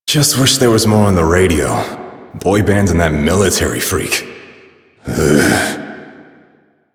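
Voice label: Citizen Male, English; Warframe; Mall; Citizens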